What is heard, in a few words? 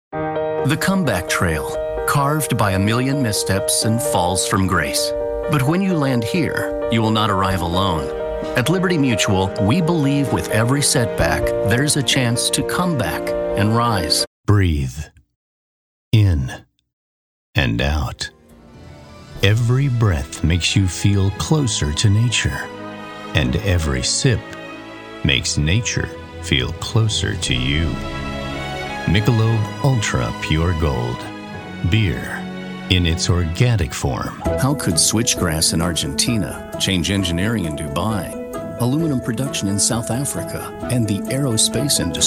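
us commercial, American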